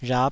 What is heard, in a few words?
"rhap5", taiwanese